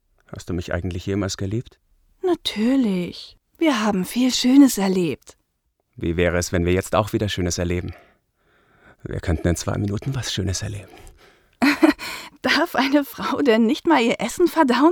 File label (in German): Synchron lovescene